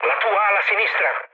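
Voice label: Italian left wing mood low, speech; War Thunder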